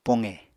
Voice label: "poqe", apatani